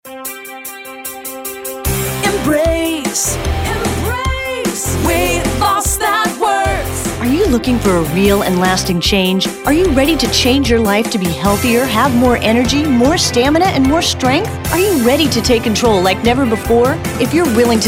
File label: Jingle VO Mix; voice over demo